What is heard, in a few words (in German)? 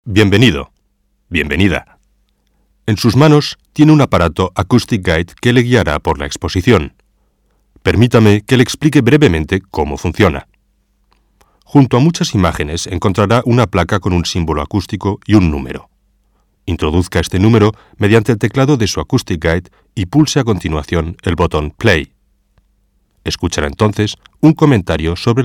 audioguide, spanisch